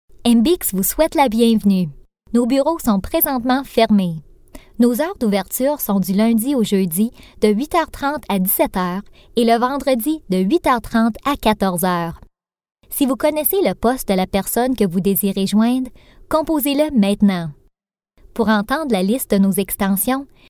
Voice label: locutora canadiense french canadian voiceover